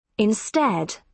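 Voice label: "instead", British English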